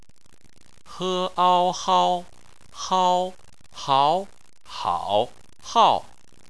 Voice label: "hao", chinese